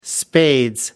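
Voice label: "spades", English, British English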